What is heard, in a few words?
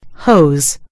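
"hose", American English; gcompris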